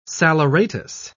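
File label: "saleratus", British English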